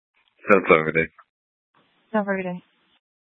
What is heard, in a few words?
Dispatch, scanner